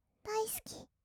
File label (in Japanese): "だいすき", kawaii voice; ASMR; 色々なワンショット